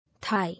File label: "Thigh", English